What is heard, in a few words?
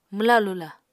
"mwla-lula", Apatani